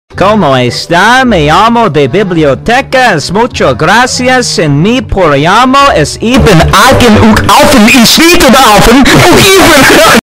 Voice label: speaking spanish german earrape; swaggersouls